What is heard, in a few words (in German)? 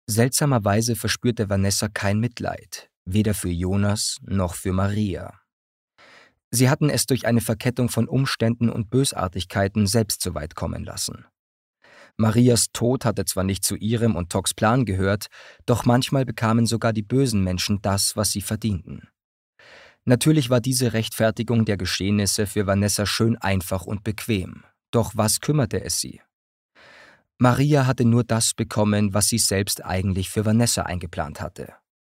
Hoerbuch; Scherben